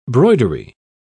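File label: "broidery", British English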